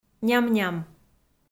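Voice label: "ням-ням" Komi-Zyrian, female; kpv audio dict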